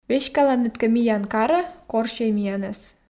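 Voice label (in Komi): веськаланныд кӧ миян карӧ корсьӧй миянӧс, Komi, female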